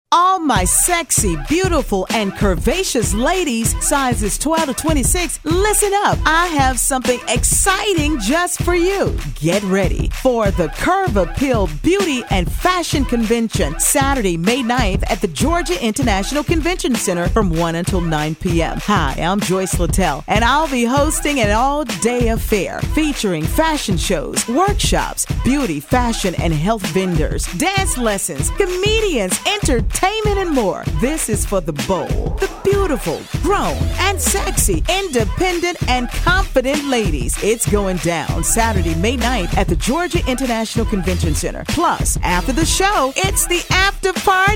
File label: Commercial; Curve Appeal